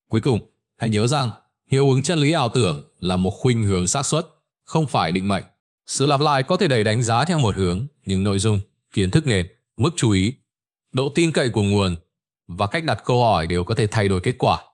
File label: narration